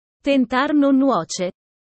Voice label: italian sayings about life